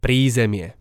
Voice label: "prizemie", slovencina